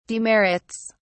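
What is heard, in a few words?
"demerits", British English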